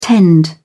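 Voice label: "tend", British English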